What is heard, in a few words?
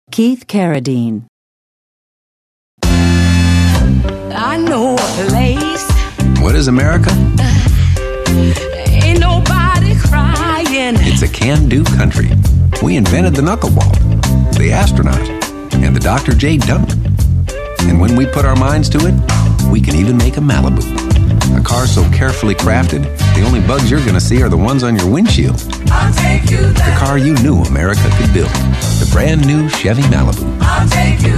commercial; Innovative LA